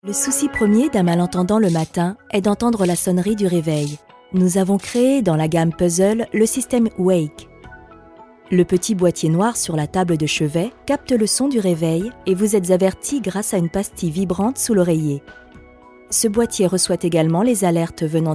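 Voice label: French corporate presentation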